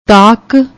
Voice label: "taak", Punjabi; ਤਾਕ

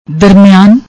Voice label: "darmian", Punjabi

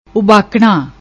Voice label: "ubakna", Punjabi; ਉਬਾਕਣਾ

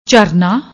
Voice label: "jharnaa", Punjabi

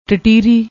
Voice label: "tatihri", Punjabi

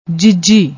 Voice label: "jiji", Punjabi

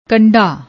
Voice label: "kanda", Punjabi; ਕੰਡਾ

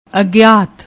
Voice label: "agyat", Punjabi; ਅਗਿਆਤ

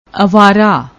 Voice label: "awaara", Punjabi; ਅਵਾਰਾ

